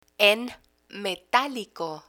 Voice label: "en metalico", Spanish